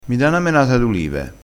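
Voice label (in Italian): "menata", dialettale crespinese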